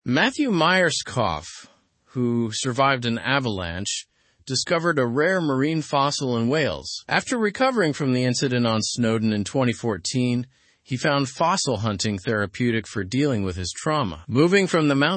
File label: learn english through news upper intermediate; mountaineer finds ancient sea dragon fossil